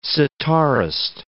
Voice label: "sitarist", words

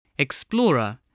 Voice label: "explorer", voice

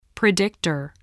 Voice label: "predictor", voice, spelling

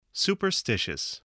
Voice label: "superstitious", English

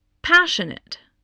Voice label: "passionate", English, voice

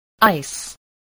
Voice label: "ice", voice spelling

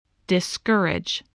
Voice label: "discourage", english spelling

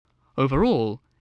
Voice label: "overall", English voice

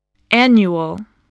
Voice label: "annual", spelling

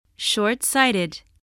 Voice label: "short-sighted", spelling

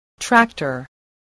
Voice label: "tractor", voice

